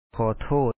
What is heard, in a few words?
"khO4O tho1ot" ຂໍໂທດ, Lao